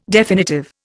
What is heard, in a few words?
"definitive", Indian